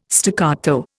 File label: "staccato", Indian